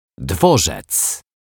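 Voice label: "dworzec", Polish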